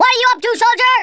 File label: stupid, Drill Sergeant; Worms Armageddon